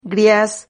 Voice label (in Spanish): "griaz", Silabas